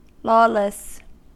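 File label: "lawless", American English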